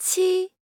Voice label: Chinese Number